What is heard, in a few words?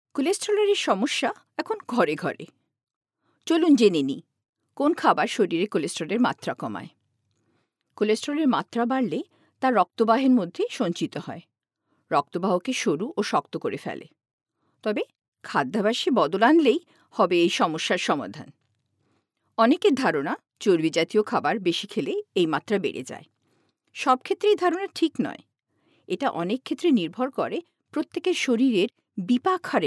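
Bengali, Bangladesh, female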